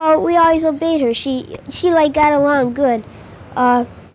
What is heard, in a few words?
Conversation with 13 year old white male Detroit Michigan, american english dialect